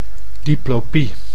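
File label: "diplopie", Dutch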